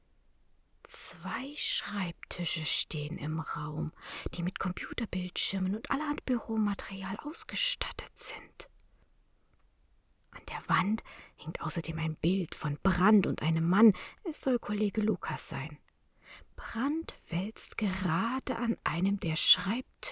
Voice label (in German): flüsternde Reporterin